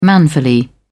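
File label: "manfully", British English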